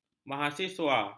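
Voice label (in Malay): "mahasiswa", Malay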